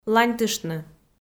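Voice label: "ланьтыштны", Komi, female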